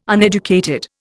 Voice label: "uneducated", Indian

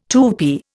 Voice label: "Tupi", Indian

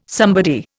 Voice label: "somebody", Indian

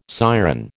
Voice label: "siren", American

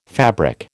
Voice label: "fabric", American

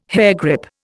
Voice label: "hairgrip", Indian

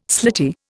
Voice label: "slitty", Indian

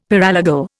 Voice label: "paralegal", Indian; Dictionary

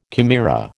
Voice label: "chimera", American

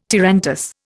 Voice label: "tarantass", Indian

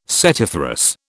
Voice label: "setiferous", British

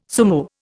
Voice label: "sumo", Indian

